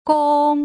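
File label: "gong", Putonghua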